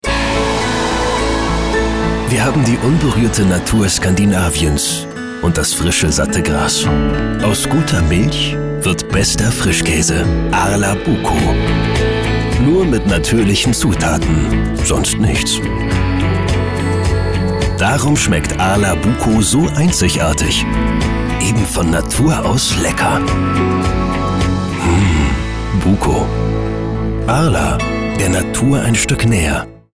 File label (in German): Werbung Dynamisch